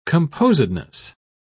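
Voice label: "composedness", English, British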